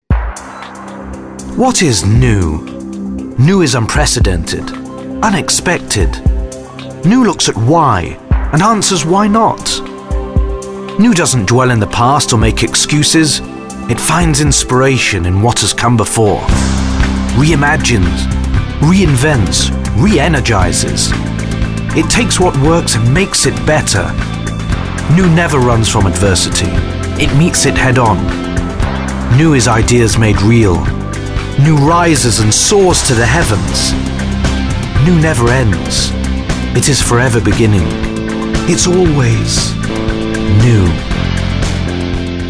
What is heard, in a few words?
motivational